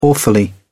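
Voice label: "awfully", British English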